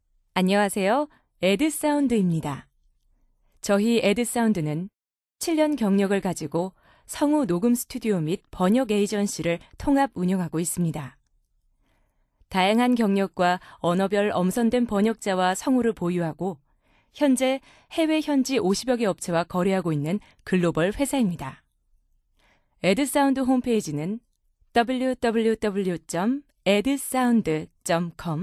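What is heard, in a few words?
Korean female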